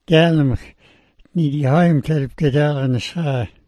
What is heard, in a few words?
"gyaalmx", smalgyax